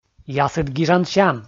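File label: "ясыд гижансям", Komi, male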